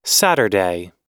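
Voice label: "saturday", American English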